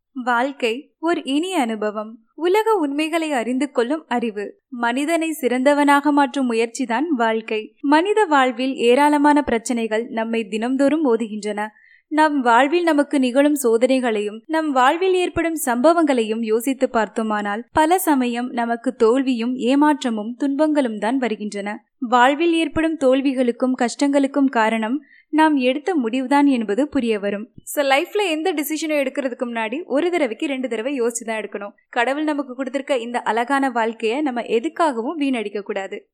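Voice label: TA General, Tamil; GoLocalise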